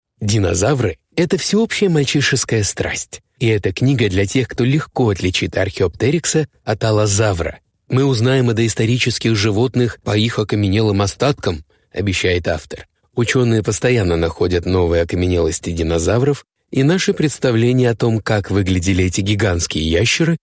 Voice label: russian audiobooks

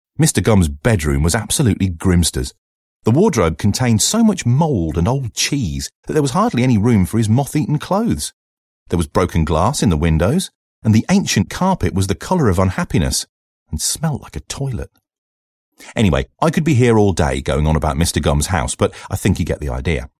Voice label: english british audiobooks; english british voice actors

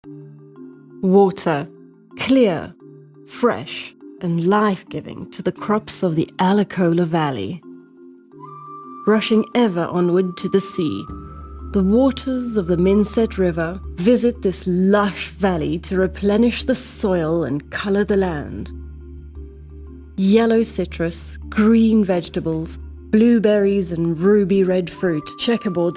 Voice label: english british documentaries

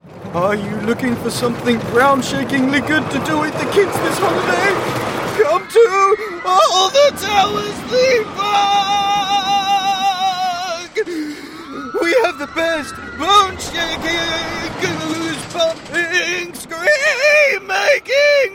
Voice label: english british promos

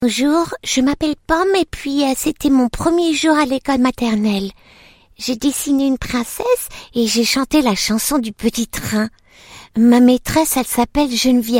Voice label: french others

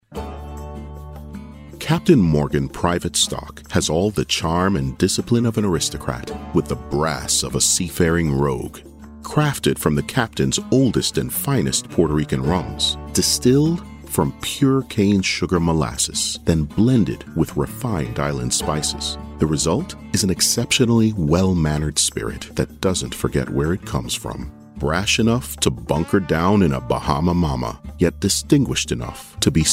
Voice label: english canadian commercial, gravitas

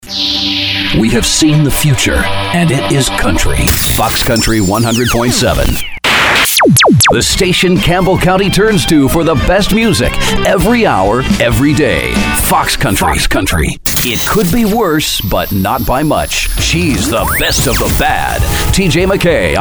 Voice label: english american promos

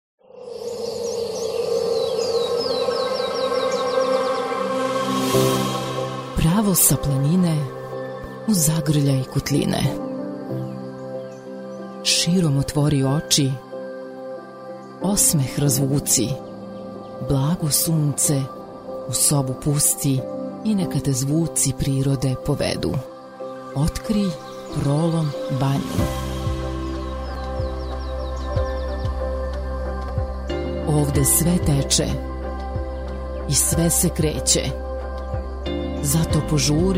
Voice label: serbian corporate videos

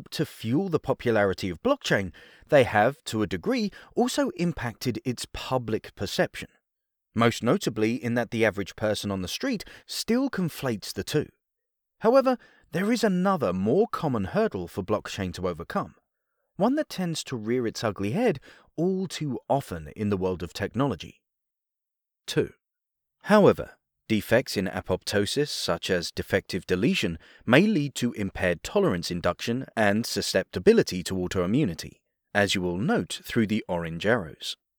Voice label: english british e-learning

